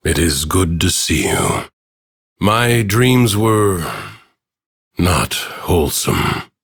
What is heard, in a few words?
Ambient Bark Fear, en; Warframe; Duviri; Teshin